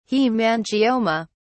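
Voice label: "haemangioma", British English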